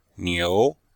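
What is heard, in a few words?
"niu2", Mandarin Chinese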